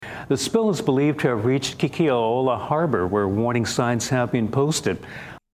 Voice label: kikiaola harbor, pronunciation hawaii